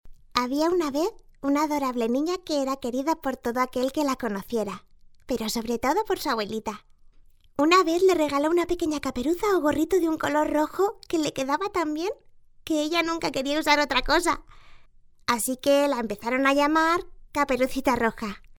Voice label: locutora infantil child voiceover nina, Spanish girl child voice over; Spanish girl child voice over talent